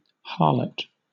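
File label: "harlot", British English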